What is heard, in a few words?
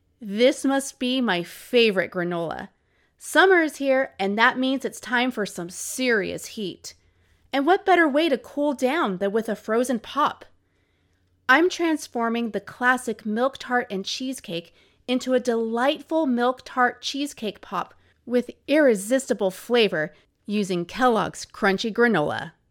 voice over commercial; kelloggs granola